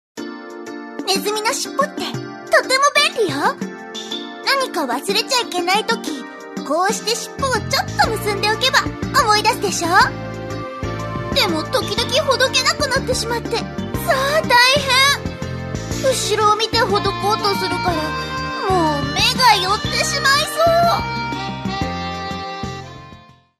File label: f-onnanoko